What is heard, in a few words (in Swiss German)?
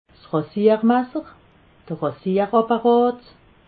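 "rasiermasser", Alsatian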